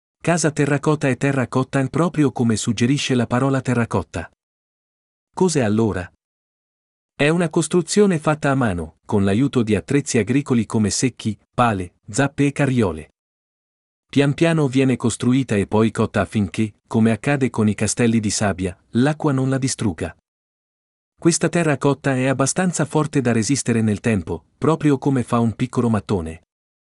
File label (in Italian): Italiano